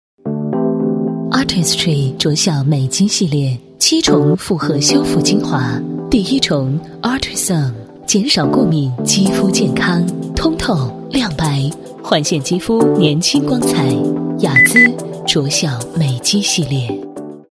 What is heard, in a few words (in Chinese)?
女4号 广告 洗化类 感性品质, 国语女声配音